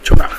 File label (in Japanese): "chuuna", minami yamagata